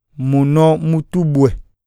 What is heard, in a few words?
thumb, iyasa